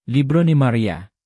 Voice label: "ng", tagalog markers

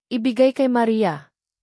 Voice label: "sa", tagalog markers

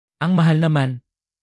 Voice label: "ang mahal", Tagalog; basic tagalog for travel

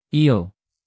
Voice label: "iyo", tagalog pronouns

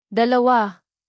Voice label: native, tagalog numbers

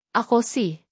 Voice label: "ako si", tagalog; tagalog phrases

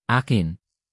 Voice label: "akin", tagalog pronouns

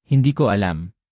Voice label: "hindi ko alam", Tagalog; tagalog phrases

